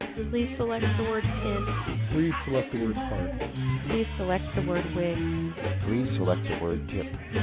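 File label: EVS narrowband 24400 club; EVS24,400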